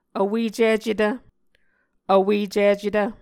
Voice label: "vwecicetv", Muscogee; Mvskoke Words with Voicing Consonants; Martin, Jack B., and Margaret McKane Mauldin. 2023. A Dictionary of Creek/Muskogee; SIL International

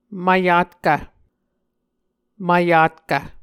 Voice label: "mvyatkv", Muscogee

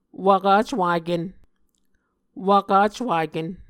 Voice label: "wvkacwaken", Muscogee

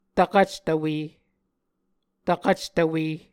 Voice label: "tvkvctvwe", Muscogee

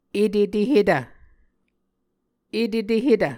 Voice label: "etetehetv", Muscogee

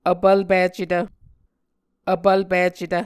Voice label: "vpvlpicetv", muscogee

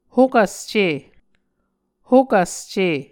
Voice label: "hokvs ce", Muscogee

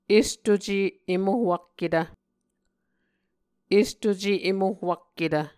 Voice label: "estuce em ohwakketv", Muscogee

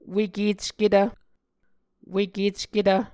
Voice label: "wekecketv", Muscogee

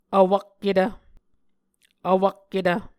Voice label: "vwakketv", Muscogee; A Dictionary of Creek/Muskogee; SIL International

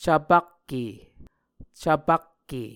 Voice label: "cvpakke", Muscogee; Onvkv Ohhvyayices; A Dictionary of Creek/Muskogee; SIL International

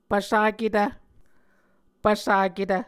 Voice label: "pvsaketv", Muscogee

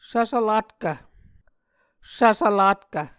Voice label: "svsvlatkv", Muscogee

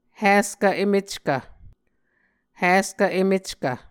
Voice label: "hiskv emeckv", Muscogee

